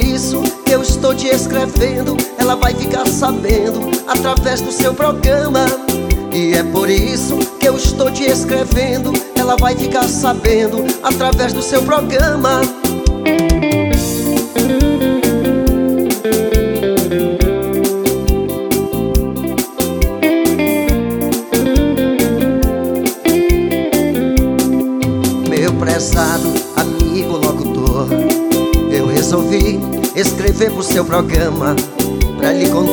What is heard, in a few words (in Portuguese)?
locutor